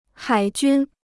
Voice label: "海军", Chinese

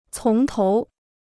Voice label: "从头", Chinese

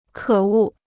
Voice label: "可恶", Chinese

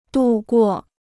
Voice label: "渡过", Chinese

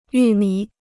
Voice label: "玉米", Chinese

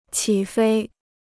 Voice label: "起飞", Chinese

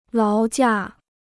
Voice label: "劳驾", Chinese

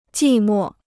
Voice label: "寂寞", Chinese

